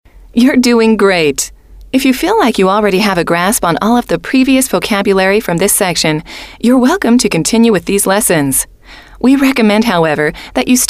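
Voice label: you Are Doing Great If You Feel Like You Already, female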